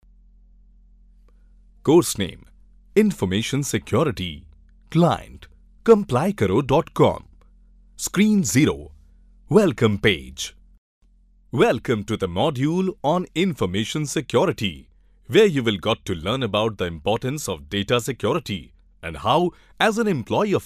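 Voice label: English male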